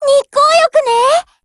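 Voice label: OPERATION BEGIN Japanese; GF Alarm; UMP40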